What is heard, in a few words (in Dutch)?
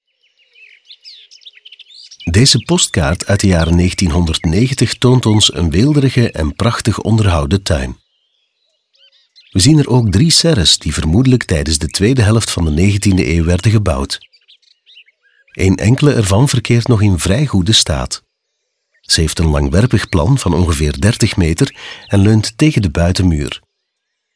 audioguide nl adulte; jardin; modave